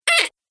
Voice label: Cartoon Game Voice "Ah"